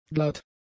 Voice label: "glatt", German; adjectives

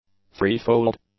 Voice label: "threefold", English; adjectives

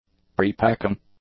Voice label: "prepacking", english; adjectives

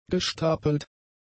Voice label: "gestapelt", German; adjectives

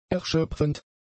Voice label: "erschöpfend", German; adjectives

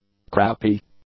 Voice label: "crappy", English; adjectives

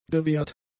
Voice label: "gewehrt", German; adjectives

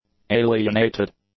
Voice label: "alienated", English; adjectives

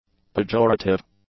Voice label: "pejorative", English; adjectives

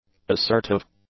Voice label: "assertive", English; adjectives

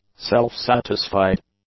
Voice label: "self-satisfied", English; adjectives

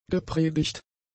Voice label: "gepredigt", German; adjectives

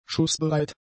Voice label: "schussbereit", German; adjectives

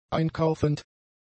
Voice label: "einkaufend", German; adjectives

